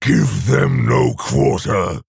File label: Male Orc Bark; Captain Galvangar